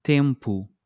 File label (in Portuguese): "tempo", portuguese